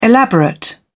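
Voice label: "elaborate", British English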